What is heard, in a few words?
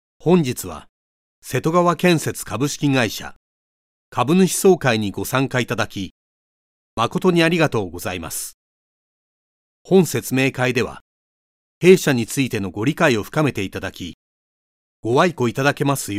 japanese corporate videos